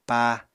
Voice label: "pá", Apatani

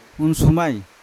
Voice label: "unsumai" grass, Yawa

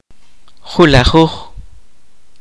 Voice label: "once", kaqchikel

